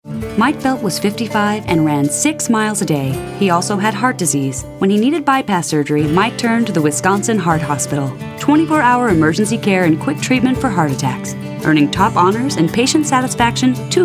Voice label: Commercial Track